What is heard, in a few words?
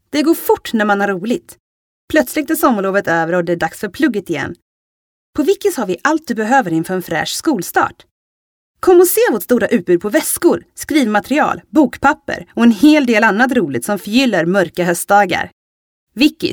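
Swedish commercial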